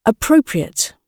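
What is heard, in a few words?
"appropriate", British English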